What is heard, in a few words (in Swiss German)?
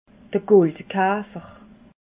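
"guldkafer", Alsatian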